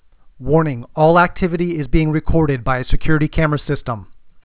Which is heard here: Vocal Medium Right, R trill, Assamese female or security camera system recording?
security camera system recording